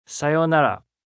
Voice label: Goodbye, male, Japanese; Greetings And Goodbye